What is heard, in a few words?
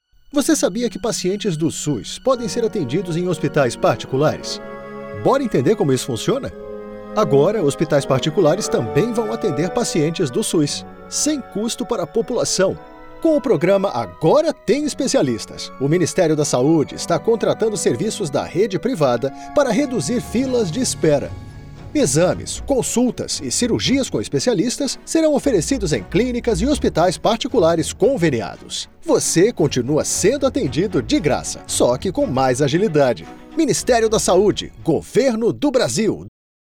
portuguese brazilian political ads